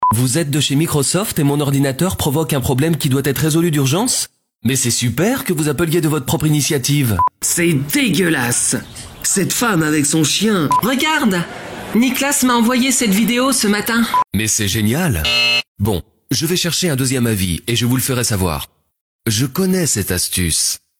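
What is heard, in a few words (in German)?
French Variabel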